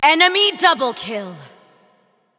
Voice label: Announcer Spree Classic Enemy 2 Kills; LoreLady; Paladins